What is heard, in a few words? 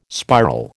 "spiral", American